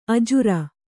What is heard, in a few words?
"ಅಜುರ", kannada